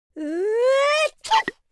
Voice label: idle, speech, Madchester